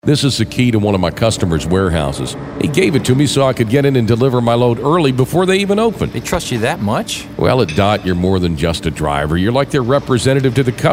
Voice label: real person midlife

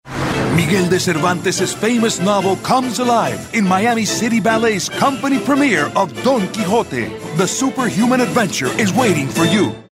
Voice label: high energy

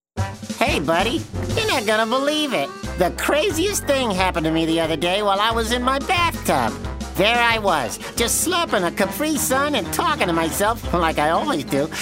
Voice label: cartoon characters

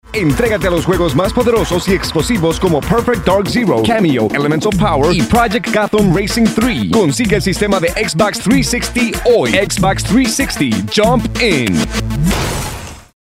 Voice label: Video Game Promo

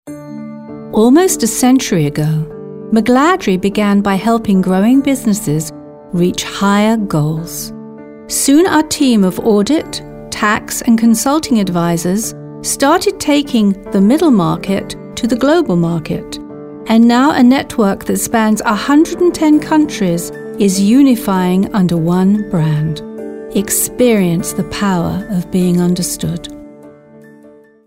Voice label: Informative

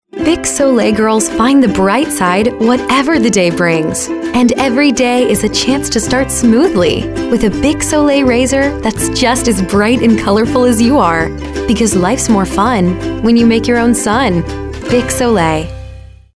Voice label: young girl next door

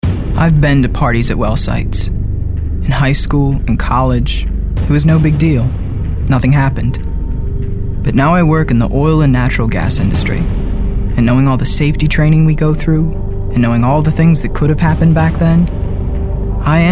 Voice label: ominous

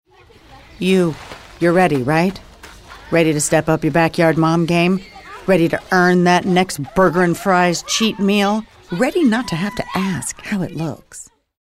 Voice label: cocky

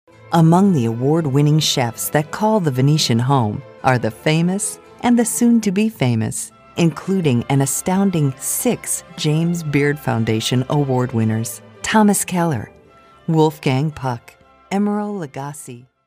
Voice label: smooth

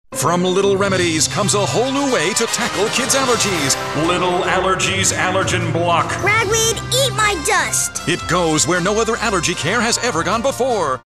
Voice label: superhero

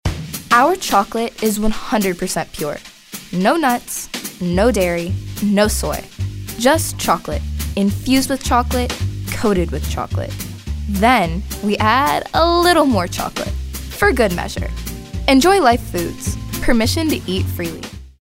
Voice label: narrative

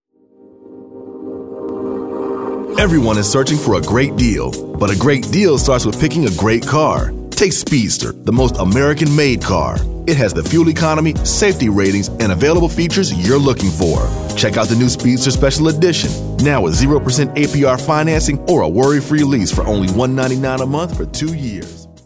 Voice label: Smooth

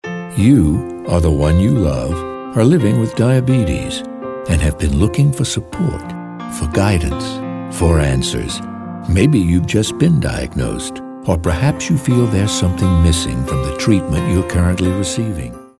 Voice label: caring

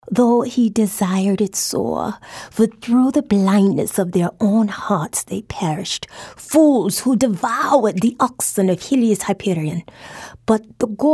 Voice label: audiobook

